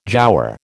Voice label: "giaour", American